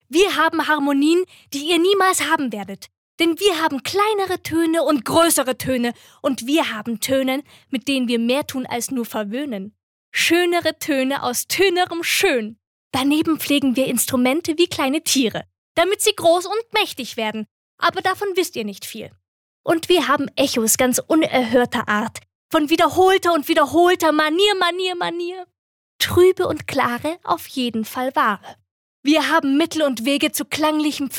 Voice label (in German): Schauspiel Lebendig